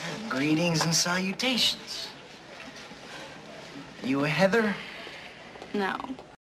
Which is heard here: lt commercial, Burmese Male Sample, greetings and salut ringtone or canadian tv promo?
greetings and salut ringtone